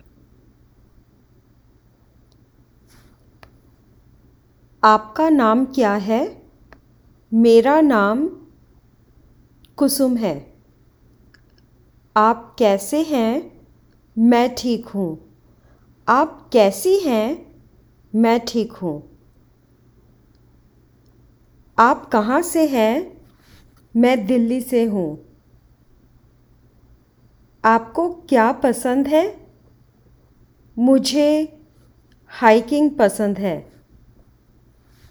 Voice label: Meeting and greeting, Hindi